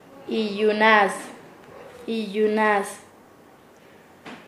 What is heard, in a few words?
"librarse", Nasa Yuwe; paez